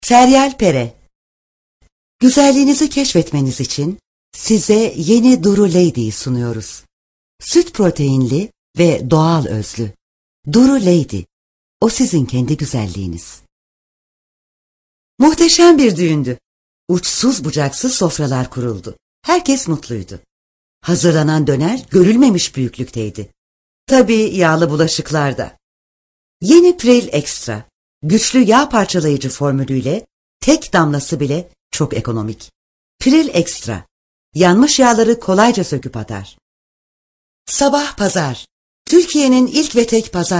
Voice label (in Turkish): turkce kadin